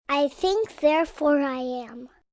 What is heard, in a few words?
Think Therefore Am, Sayings, 1 child, 4 yrs; Talking Sound Effects; VoiceBox; Twistedtracks